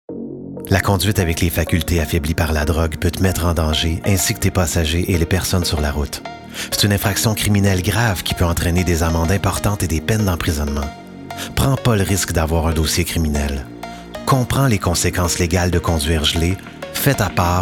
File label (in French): Message gouvernemental Voix sobre posée grave Pub fictive